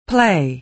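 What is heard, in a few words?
"play", American English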